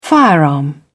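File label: "firearm", British English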